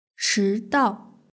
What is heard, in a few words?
"chidao", Chinese; hsk3